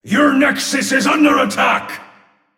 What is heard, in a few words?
Announcer; structure Warn Nexus